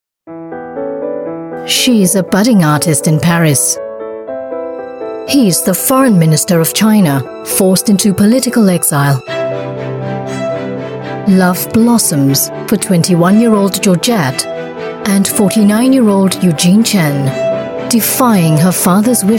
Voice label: Asian English Trailer, English Singaporean Female; The Worlds of Georgette Chen